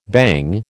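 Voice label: "bhang", American English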